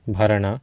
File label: "bharaNa", Sanskrit